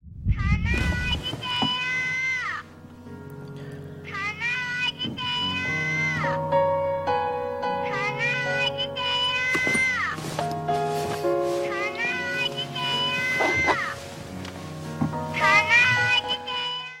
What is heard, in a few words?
baby korean ringtone